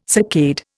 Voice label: "saccade", Indian

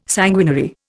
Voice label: "sanguinary", Indian

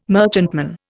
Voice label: "merchantman", Indian

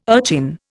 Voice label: "urchin", Indian

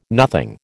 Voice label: "nothing", American

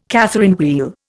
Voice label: "Catherine wheel", Indian

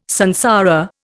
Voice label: "samsara", Indian

